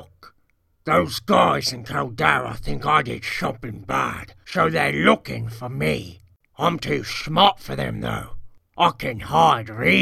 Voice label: orc audition; skywind; orc voice auditions